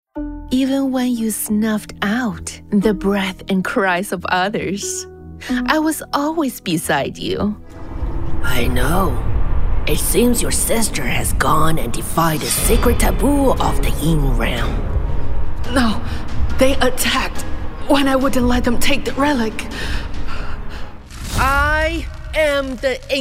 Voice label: women video games; Voice age & print: 0-99. Calm, firm, strong, elegant, innocent, evil, bouncy, dramatic.

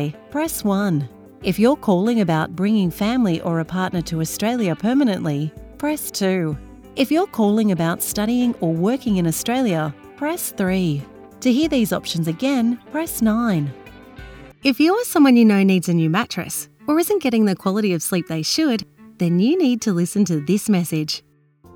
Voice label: australian telephone; AUSTRALIAN » Versatile Australian voice. Natural girl next door, nurturing mother, eloquent professional, slick station imaging.

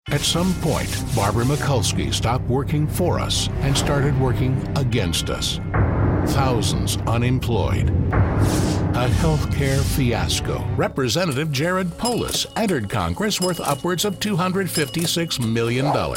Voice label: men political

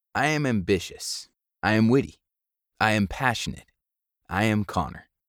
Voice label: men mini demo

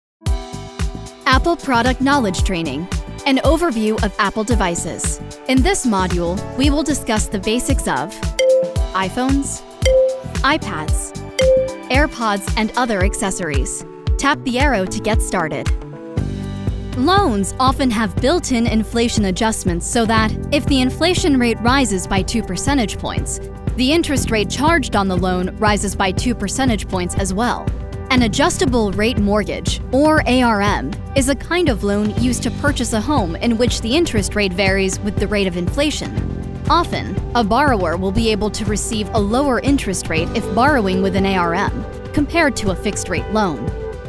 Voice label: women elearning; Youthful, Upbeat, Bright, Engaging, Perky, and Endearing.

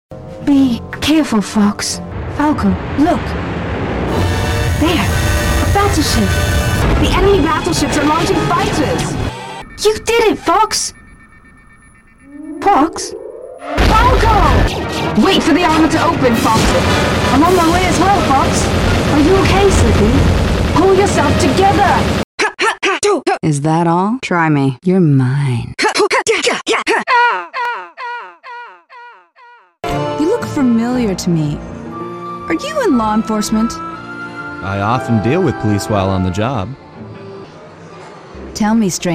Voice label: women animation; Trustworthy and inspiring. A voice that connects, comforts, and carries weight.

